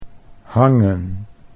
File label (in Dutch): "hangen", Dutch